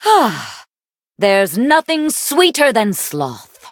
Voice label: loading, en; Cookie Run